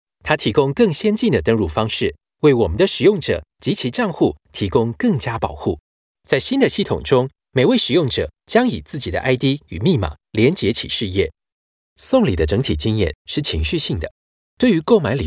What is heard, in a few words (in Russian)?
Мужской китайский